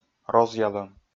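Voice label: "розъялом", Komi, male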